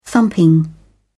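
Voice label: "thumping", British English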